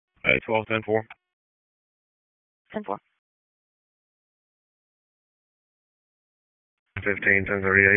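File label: Dispatch 1, P25; WSO DISP 1; wake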